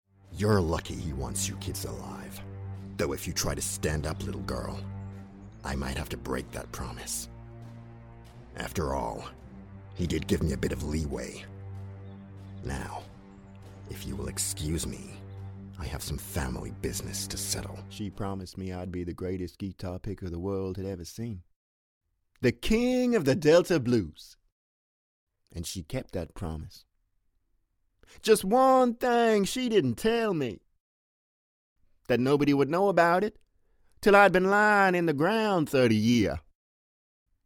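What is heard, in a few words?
English UK, Video Game; GoLocalise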